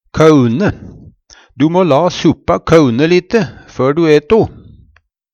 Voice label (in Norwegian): kaune, Numedalsmål; Verb; Hushald, mat, drikke